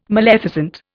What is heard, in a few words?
"maleficent", Indian